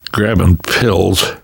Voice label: take pills, namvet, survivor voice; Left 4 Dead 2; left4dead2 dlc3

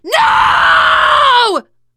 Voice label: grabbed by smoker, teen girl; player; survivor; voice

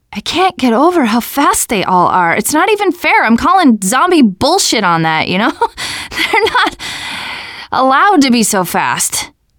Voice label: generic, teengirl, voice

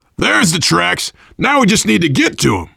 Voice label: world farmhouse, voice, biker

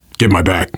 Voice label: cover me, voice, biker; Left 4 Dead 2; survivor

